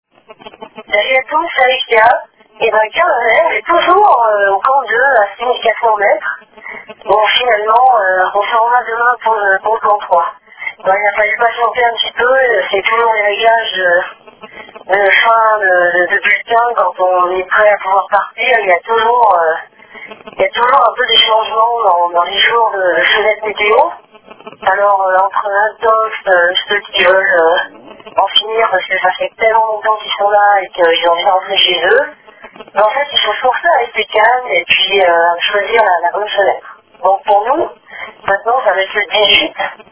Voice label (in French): Appel telephonique